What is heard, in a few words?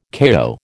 "kayo", American